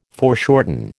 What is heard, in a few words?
"foreshorten", American